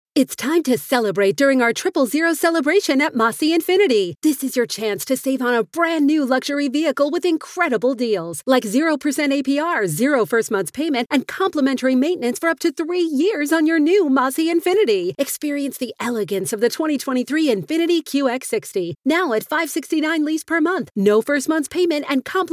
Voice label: english american automotive